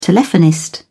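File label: "telephonist", British English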